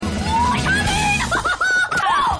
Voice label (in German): Frau; Rescue Me